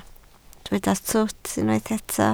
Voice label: "twt", Welsh